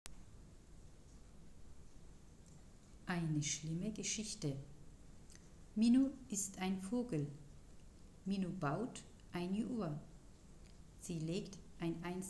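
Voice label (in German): aufnahme türkisch; geschichten mehrsprachig erzaehlen und spielen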